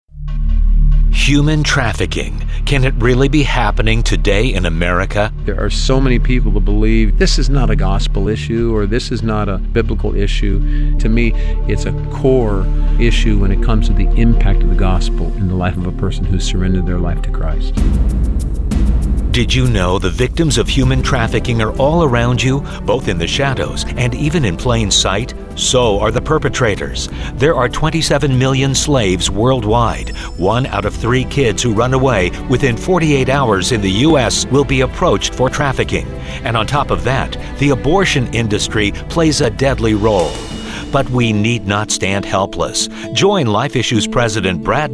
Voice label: Trailer Promo